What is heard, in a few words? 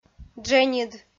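"дженьыд", Komi, female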